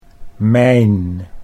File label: "mijn", Dutch